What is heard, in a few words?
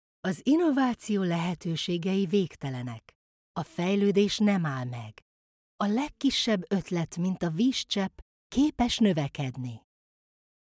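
Hungarian female Narration; voice over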